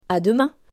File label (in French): "A demain", French